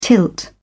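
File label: "tilt", British English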